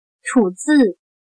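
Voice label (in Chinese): "吐字" Mandarin Chinese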